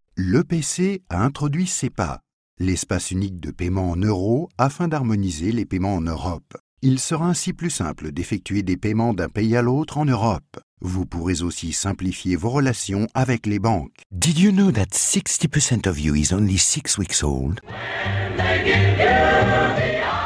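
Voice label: French, General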